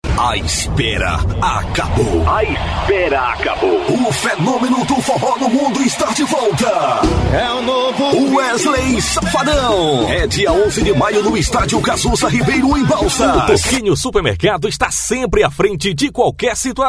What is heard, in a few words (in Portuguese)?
Locutor